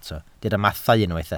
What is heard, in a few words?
"mathau", Welsh